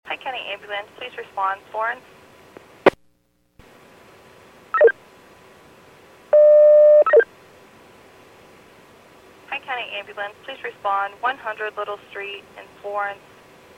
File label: PAGING